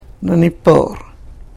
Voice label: "nanipoor", karuk

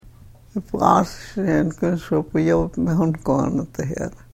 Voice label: karuk

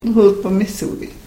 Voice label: karuk

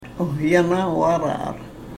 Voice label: "uhyanavaraar", karuk

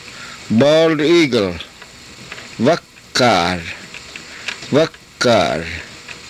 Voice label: "vakaar", Karuk

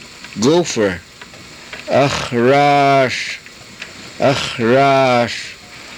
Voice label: "axraas", Karuk

